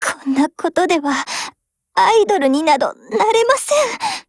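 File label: BREAK Japanese, Voice; GF Alarm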